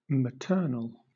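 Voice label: "maternal", British English; pronunciations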